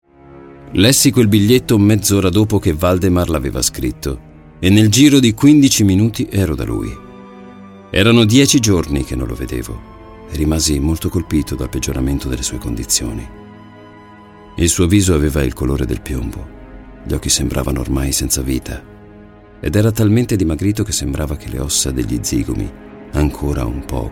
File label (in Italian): Audiolibro; lo strano caso di Mr Valdemar